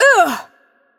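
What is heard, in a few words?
Hungarian attack heavy voice; bloom minigame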